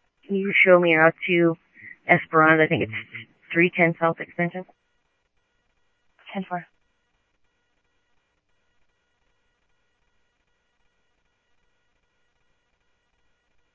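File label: Simulcast police department, scanner audio trunk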